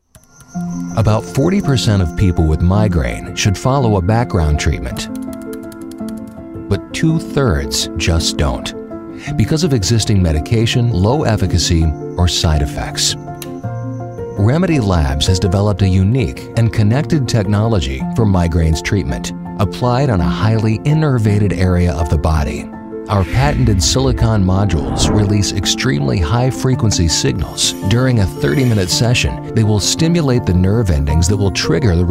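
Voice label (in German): Produktvideos Jugendfrei, English, male; NATIVE PROFISPRECHER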